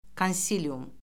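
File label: "konsilium", Azerbaijani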